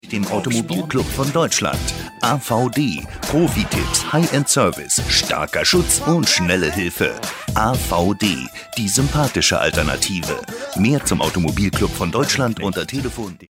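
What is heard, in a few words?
German commercial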